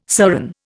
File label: "serran", Indian